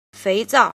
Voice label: "jin", Chinese